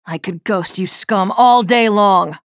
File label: chatter positive; nyx; frag